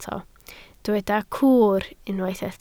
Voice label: "cwr", Welsh